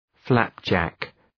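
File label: "flapjack", English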